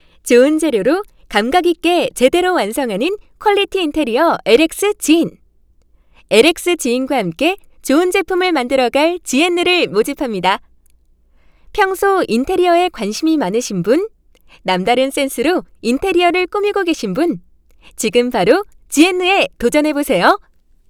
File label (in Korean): 광고 밝고 힘있는